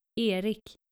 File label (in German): "erik", skandinavische jungennamen